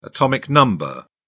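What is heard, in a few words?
"atomic number", English, uk British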